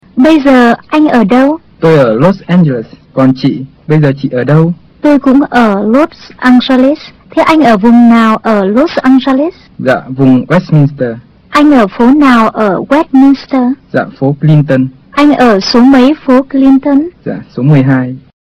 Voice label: lesson dialog, vietnamese